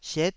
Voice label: "siet9", Taiwanese syllable